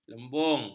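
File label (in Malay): "lembung", Malay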